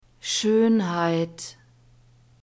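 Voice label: "Schönheit", German; feminine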